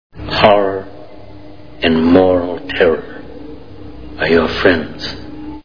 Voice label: horror; Apocalypse Now